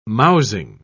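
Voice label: "mousing", British English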